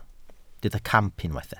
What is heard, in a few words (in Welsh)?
"camp", Welsh